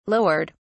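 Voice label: "lowered", British English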